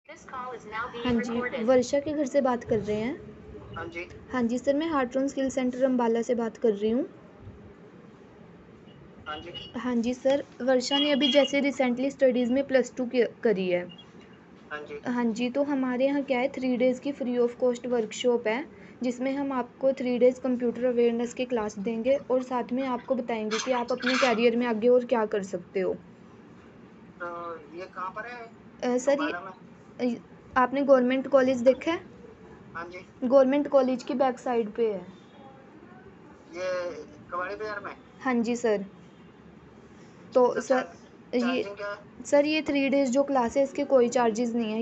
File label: call recording